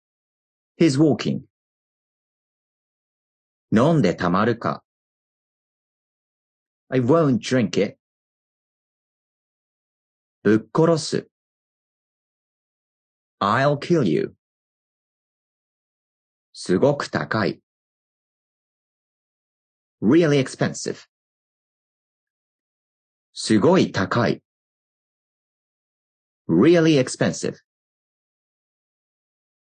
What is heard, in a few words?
Patterns in casual Japanese